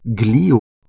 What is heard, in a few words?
"gliw", Proto-Germanic